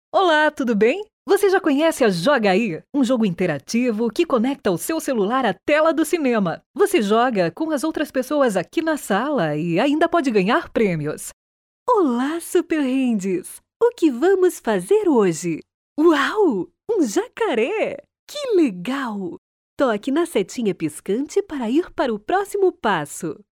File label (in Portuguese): Jogos Kids